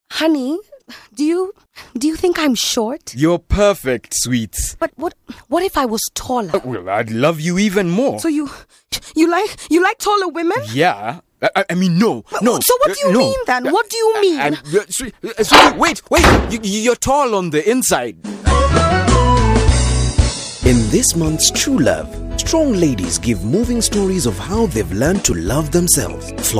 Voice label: radio ad